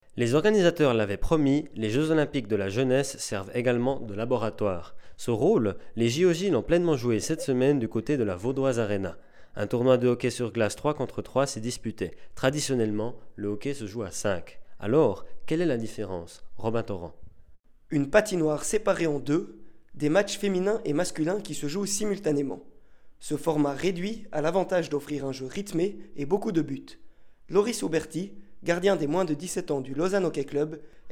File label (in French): radio